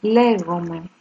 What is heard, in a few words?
my name is, Greek; Λέγομαι ...; Légome ...